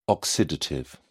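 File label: "oxidative", British English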